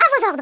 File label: YES SIR, French; Worms Armageddon